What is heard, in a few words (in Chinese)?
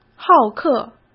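"好客", Mandarin Chinese; chinese flashcards; hsk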